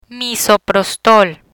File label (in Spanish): "Misoprostol", Spanish; gastrointestinal agents; learn spanish